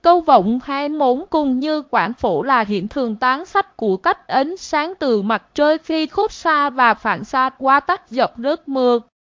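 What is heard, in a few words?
speaker, American English; piper-voices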